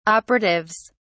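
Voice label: "operatives", British English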